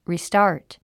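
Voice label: "restart", English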